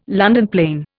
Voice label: "London plane", Indian

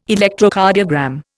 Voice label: "Electrocardiogram", Indian

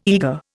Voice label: "eager", Indian

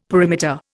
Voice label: "perimeter", Indian; Dictionary

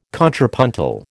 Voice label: "contrapuntal", American

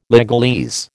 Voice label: "legalese", American

